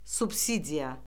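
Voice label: "subsidiya", Azerbaijani; Orfoqrafiya Lüğəti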